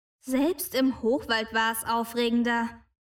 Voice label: voice bored; baldursgate; neera